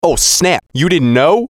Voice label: VOICE COMICAL; Radio Voice; Blastwave

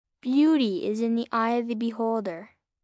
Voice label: Beauty Is In Eye, Sayings, 1 child, 8 yrs; Talking Sound Effects; Sound Effects:Voice; VoiceBox

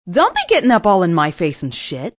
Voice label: Up In My Face, Attitude, Female

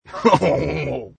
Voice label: Cartoony male doing the stereotype; "French laugh"; Cartoon Voices

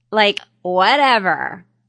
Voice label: Young Lady Like Whatever, A young lady says; Talking

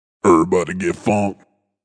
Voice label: Vocal Deep, Funk vocal loop sample.

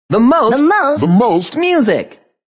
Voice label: Most Music, Male; Radio; Voice Box

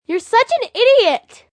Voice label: Such An Idiot, Attitude, Female, child

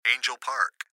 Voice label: Gps Voice, Male; Angel Park; Recorded Voice

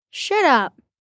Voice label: Shut Up, 1 boy, 9 yrs; Talking; VoiceBox

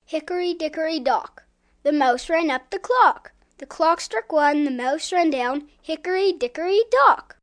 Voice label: Rhyme, Nursery rhyme, speaking, child, boy; Hickor Dock

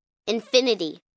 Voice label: Numbers Infinity, 1 child, girl counting

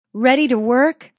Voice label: Woman Says Ready To Work; Talking

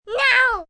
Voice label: "No" Kids, child, girl; Exclamation Sound Effects

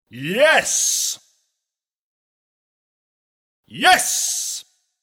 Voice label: "Yes", The word ‘Yes’ shouted in male voice; Exclamations

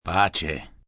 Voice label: "Pace", Goodbyes, male, foreign, Italian; 'Pace' (peace); human voice speaking; Greetings and Goodbye; VoiceBox

